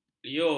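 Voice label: "liur", Malay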